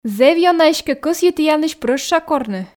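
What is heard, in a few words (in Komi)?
зэв ёна эськӧ кӧсйи тіянлысь прӧща корны, Komi, female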